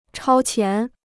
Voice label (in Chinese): "超前", Chinese